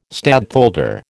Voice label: "stadtholder", American